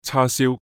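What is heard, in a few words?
Cantonese "叉燒"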